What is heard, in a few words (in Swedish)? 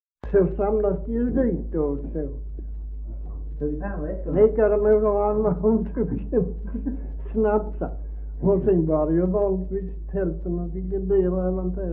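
Maglehem Skåne, dialekter; Folkminnen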